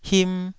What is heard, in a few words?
"him2", Taiwanese syllable